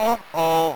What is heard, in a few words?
uh oh; nfl94